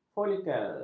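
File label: "folikel", Malay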